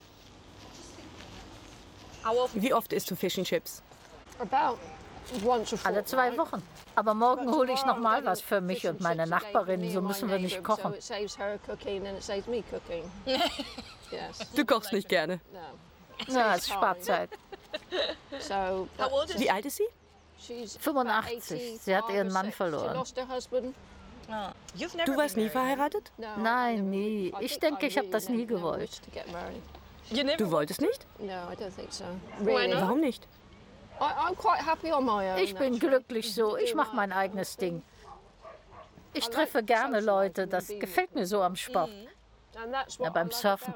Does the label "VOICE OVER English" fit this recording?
Yes